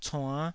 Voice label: "cuann", Taiwanese

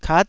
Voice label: "kat9", taiwanese syllable

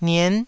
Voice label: "nien9", taiwanese

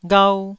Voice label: "ghau1", Taiwanese syllable

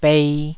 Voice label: "bei2", taiwanese syllable; 台語音節發音檔案

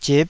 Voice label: "zip5", Taiwanese

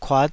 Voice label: "kuat5", Taiwanese syllable

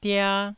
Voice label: "dia1", Taiwanese syllable

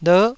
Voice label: "ler9", Taiwanese syllable

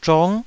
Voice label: "zhong9", taiwanese

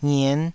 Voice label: "ngien5", taiwanese syllables

